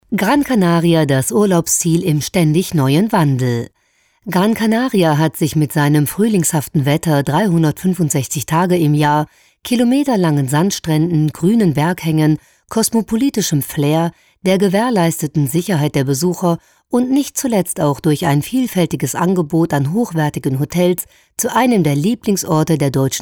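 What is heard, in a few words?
German presentation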